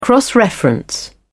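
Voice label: "cross-reference", British English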